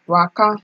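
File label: "waka", Nigerian Pidgin, female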